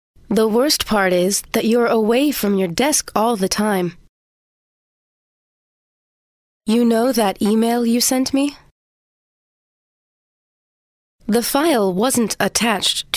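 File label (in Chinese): 外籍女英_外语_美式英语_课件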